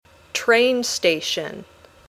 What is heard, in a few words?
"train station", English